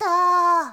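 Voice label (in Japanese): "to", Japanese boy; shonen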